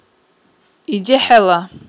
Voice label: "идехІела", Aghul